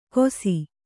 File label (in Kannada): "ಗೋಸಿ", kannada